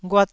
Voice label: "ghuat1", Taiwanese syllable

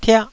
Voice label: "tiah1", taiwanese Syllables

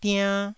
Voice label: "diann1", Taiwanese

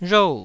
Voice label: "rhou4", taiwanese

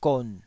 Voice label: "gon3", Taiwanese syllable

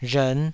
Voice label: "rhern5", taiwanese

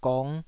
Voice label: "gong5", taiwanese syllable

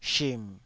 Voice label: "shim3", taiwanese Syllables

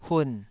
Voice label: "hun3", taiwanese syllable

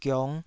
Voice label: "giong5", Taiwanese syllable

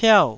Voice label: "piau4", taiwanese

